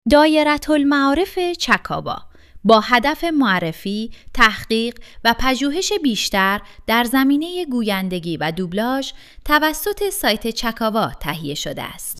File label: male, eco narration